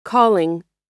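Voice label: "calling", American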